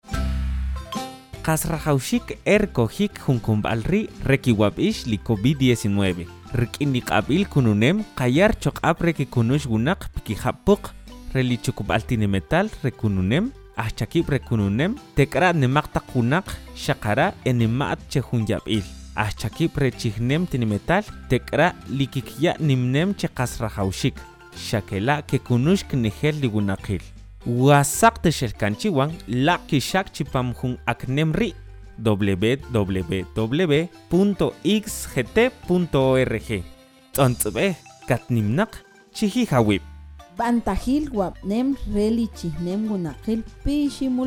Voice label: Sakapulteko Spot; IGSS

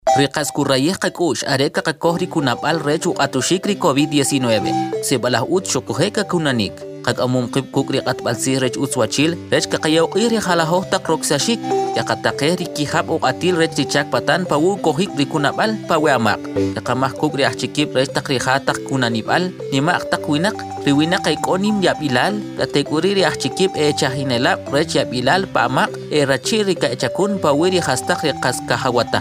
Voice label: Kiche Spot; IGSS

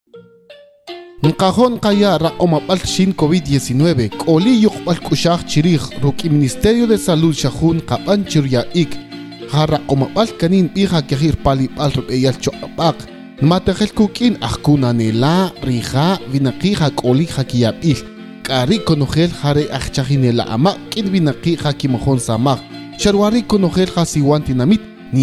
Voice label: Tzutujil Spot; IGSS

